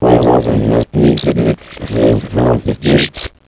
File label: gibberish